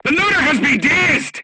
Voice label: voice message charger contused, crew tanks vietnamese